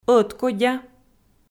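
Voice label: "ӧткодя", Komi, female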